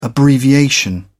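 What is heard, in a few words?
"abbreviation", British English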